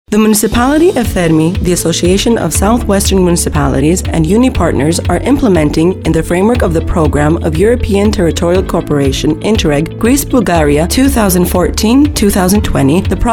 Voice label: english